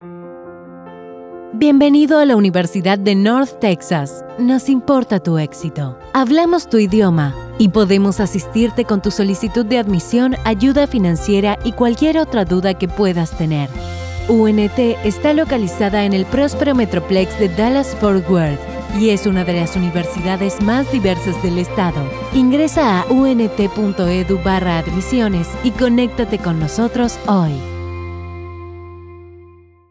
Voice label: Spanish; Spotify